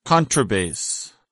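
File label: "contrabass", English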